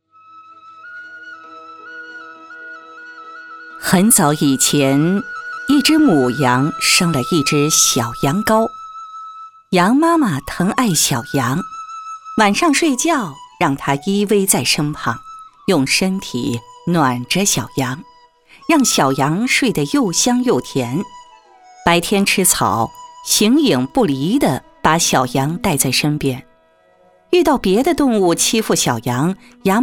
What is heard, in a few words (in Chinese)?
女-有声读物-讲故事类型